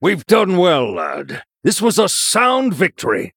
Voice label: Male Human Victory; Story Hero Uther; Mission5 Victory

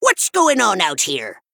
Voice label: Male Vulpera Play

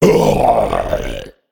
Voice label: Male Abyssal Death

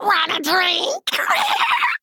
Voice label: Male Imp Attack

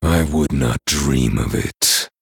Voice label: Male Night Elf MIRROR START

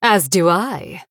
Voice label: Female Blood Elf

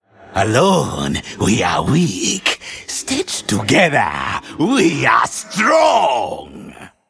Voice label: Undead Troll Emote

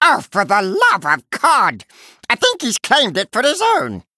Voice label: Male Murloc

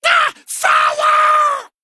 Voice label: Male Kobold Attack

